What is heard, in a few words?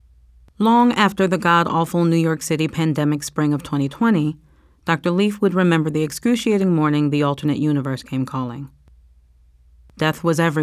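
NonFiction Sample, Narration NONFICTION sample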